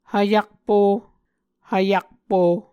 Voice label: "hvyakpo", Muscogee

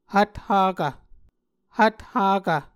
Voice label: "hvthakv", Muscogee

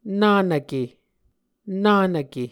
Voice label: "nanvke", Muscogee

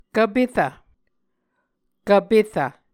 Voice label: "kvperv", Muscogee

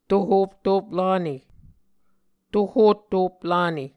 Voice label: "tohottop lane", Muscogee

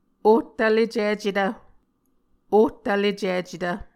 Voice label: "ohtvlecicetv", Muscogee

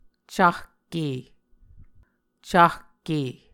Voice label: "cahke", muscogee

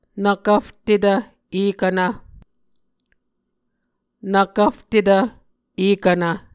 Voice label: "nvkvftetv ekvnv", Muscogee

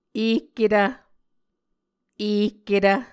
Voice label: "ehketv", muscogee

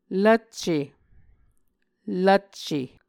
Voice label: "lvcce", Muscogee; Mvskoke Words with Repeated Consonants; Onvkv Ohhvyayices; A Dictionary of Creek/Muskogee; SIL International

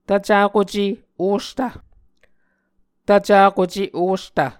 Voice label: "tvcakuce ostv", Muscogee

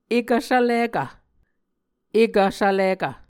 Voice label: "ekv svlikv", muscogee

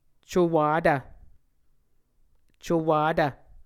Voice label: "cowatv", Muscogee

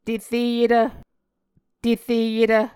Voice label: "tereyetv", muscogee

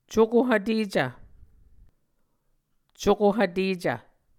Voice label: "cuko hvtecv", Muscogee

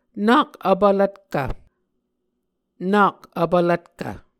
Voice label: "nak vpvlvtkv", Muscogee

